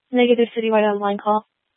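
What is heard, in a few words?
Tempe police department, scanner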